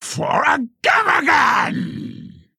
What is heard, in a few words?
Female Quilboar; Sangtusk; Kurtrus